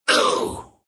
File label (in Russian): pain